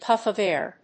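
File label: "puff of air", English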